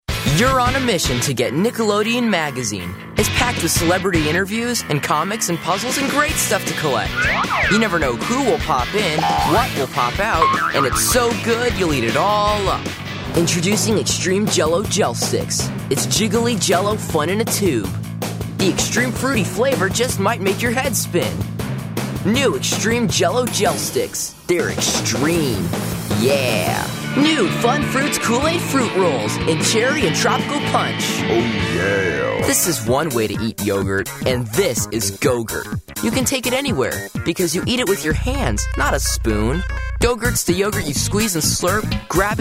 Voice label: Teen

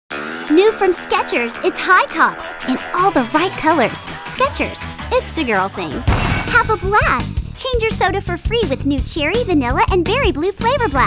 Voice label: Commercial

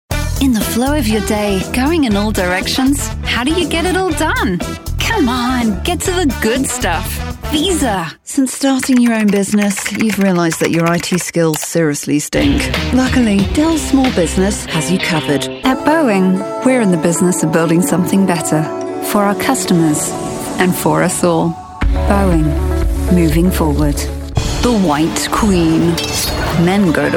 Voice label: Commercial

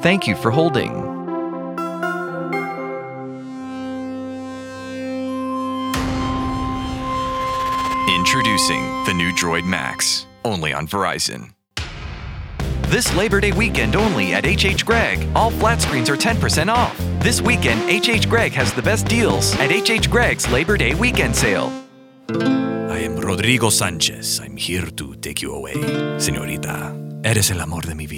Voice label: English Spanish

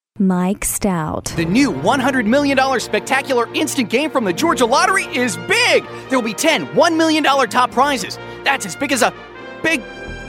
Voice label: Commercial

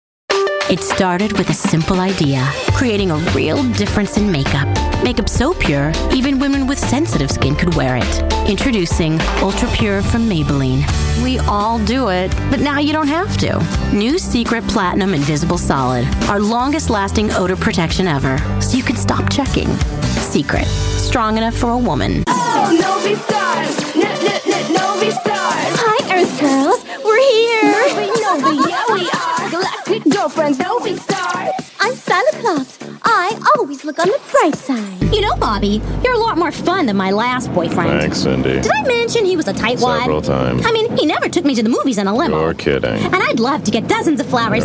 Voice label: Commercial

